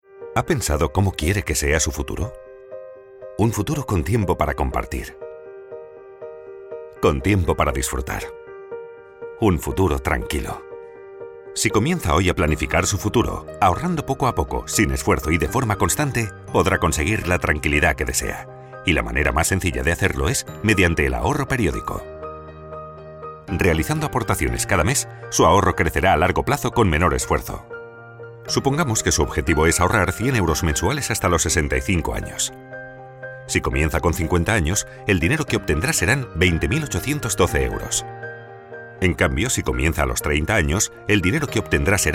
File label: male spanish bank comercial; ideasonora